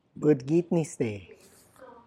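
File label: Tlacochahuaya Zapotec; grey hen; Tlacochahuaya Zapotec Talking Dictionary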